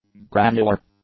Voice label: "granular", english; adjectives

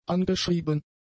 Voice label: "angeschrieben", German; adjectives

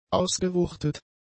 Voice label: "ausgewuchtet", German; adjectives

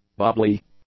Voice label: "bubbly", English; nouns

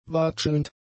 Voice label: "watschelnd", German; adjectives

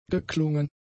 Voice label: "geklungen", German; adjectives

